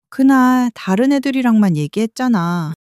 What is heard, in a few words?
sulk, monologue